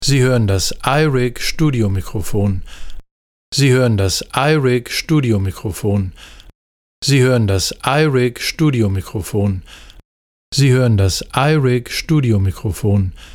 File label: iRig Mic U87